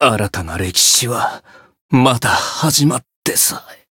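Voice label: retire, Japanese; claude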